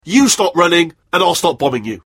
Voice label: rage; Wheatley

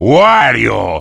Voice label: battlecry; wario

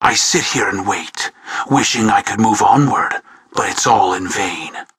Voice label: jeers; tf

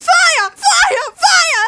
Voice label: scout auto on fire, fem scout

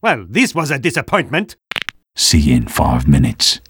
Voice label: disappointment; spyper

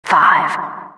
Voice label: announcer begins

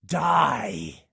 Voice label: battlecry; freak fortress 2; borderlands 2; jack; sniper

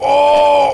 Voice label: pain; big smoke

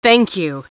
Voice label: quiet thanks

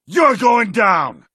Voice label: battlecry

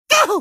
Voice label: pain; tf; crash

